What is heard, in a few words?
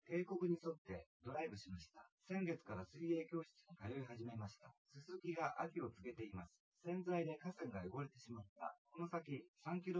male synthconv 130ms 1m